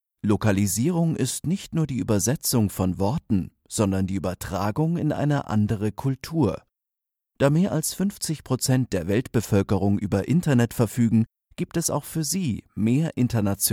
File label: german Germany male